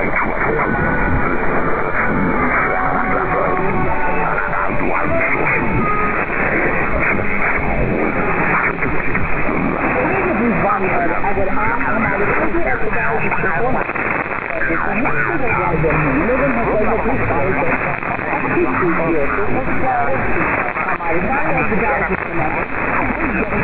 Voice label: hindi